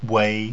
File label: "wei", pinyin; Mandarin Chinese Pronunciation